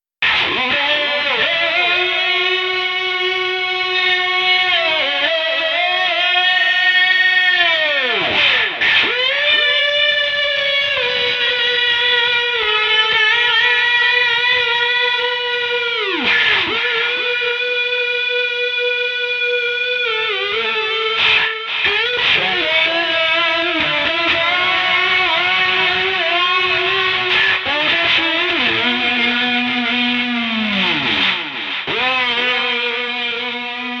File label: effected voice only